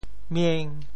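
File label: "mieng5", Teochew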